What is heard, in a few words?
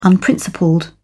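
"unprincipled", British English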